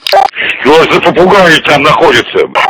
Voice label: hurt; tf; polkovnik